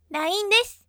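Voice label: "line desu", bright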